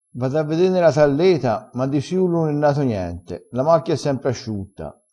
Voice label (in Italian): "talleta", crespinese